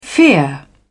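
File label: "fear", British English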